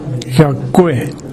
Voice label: "yakkoe", minamiyamagata; やわらかい。